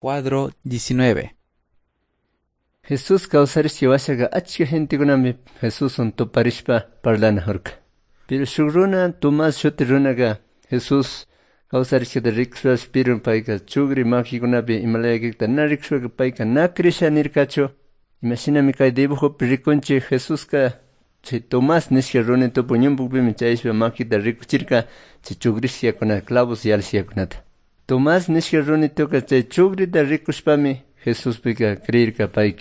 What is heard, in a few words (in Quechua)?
good news quichua cayambe; quechua; bible